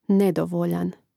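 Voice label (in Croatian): "nedovoljan", Hrvatski; mreznik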